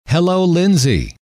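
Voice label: "hello", male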